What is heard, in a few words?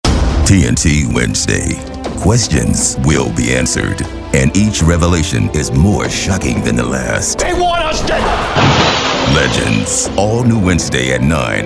Promo Voiceover